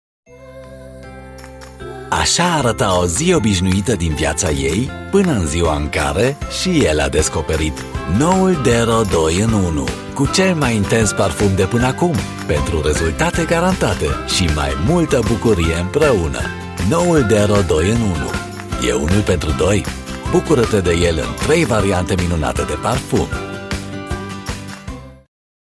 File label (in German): Rumaenisch werblich